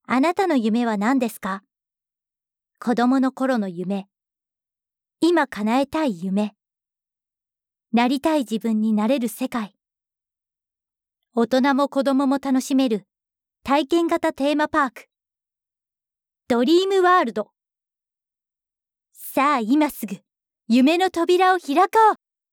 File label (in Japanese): ナレーション